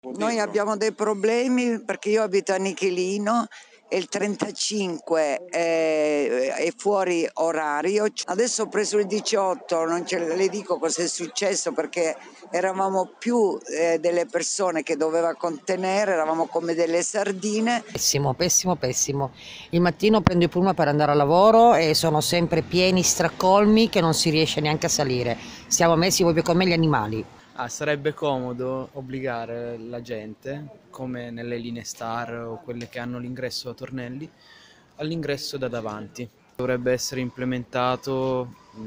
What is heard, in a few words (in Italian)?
Vox populi Trasporti pubblici a Torino